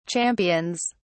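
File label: "champions", British English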